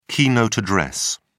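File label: "keynote address", British English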